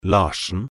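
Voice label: "Larssen", Norwegian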